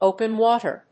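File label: "open-water", English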